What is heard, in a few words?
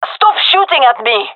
taking fire friendly, fem